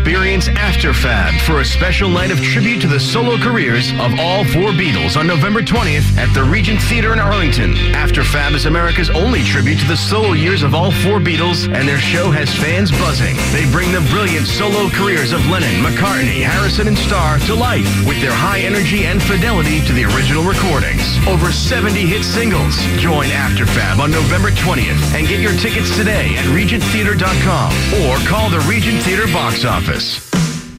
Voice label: radio spot; WZLX; AfterFab Regent